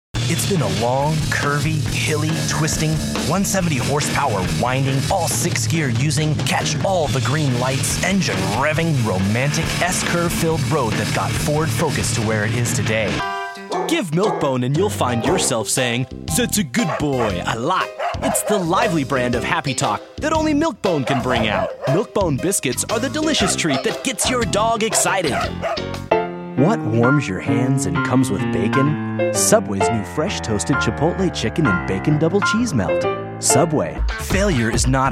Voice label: Commercial